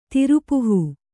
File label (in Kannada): "ತಿರುಪುಹೂ", Kannada